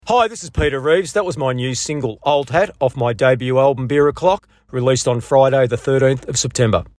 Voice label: radio outro